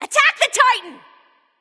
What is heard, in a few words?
vox attack; smite; serqet